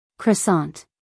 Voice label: "croissant" US English, female; everyone-can-use-english

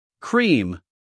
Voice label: "cream", us male

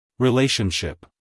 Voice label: "relationship" us male; everyone-can-use-english

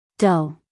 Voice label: "dough", US English, female

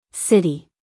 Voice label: "city" US English, female; everyone-can-use-english

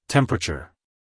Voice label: "temperature" us male; everyone-can-use-english

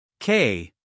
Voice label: k, us guy

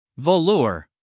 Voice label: "velour", us male

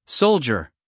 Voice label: "soldier", us male; everyone-can-use-english

